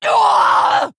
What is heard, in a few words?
Female Fel Orc Death